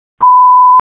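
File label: Police Northeast District Dispatch; Raleigh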